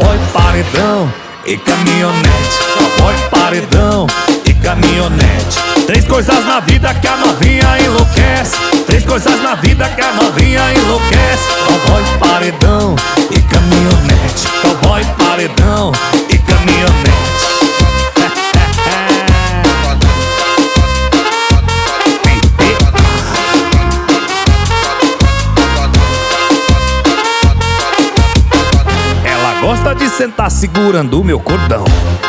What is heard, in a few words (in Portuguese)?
locutor a voz magnifica